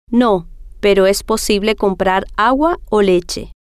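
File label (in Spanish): no Pero Es Posible Comprar Agua Leche, spanish, female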